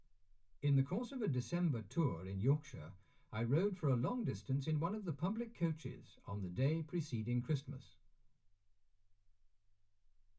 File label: male speech english